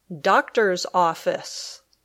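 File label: "doctors office", English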